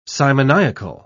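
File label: "simoniacal", British English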